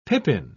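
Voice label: "pippin", British English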